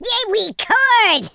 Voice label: coward, Scotsman; speech